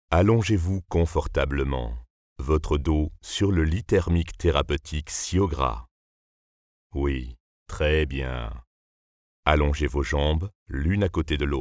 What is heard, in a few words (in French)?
Voix tres grave Guide Utilisateur; Syogra